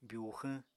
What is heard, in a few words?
"byuxwñ", Apatani